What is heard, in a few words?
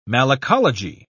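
"malacology", British English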